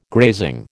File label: "grazing", American